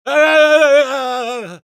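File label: pain critical death, es; Engineer; *Grito de muerte 2*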